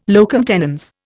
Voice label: "locum tenens", Indian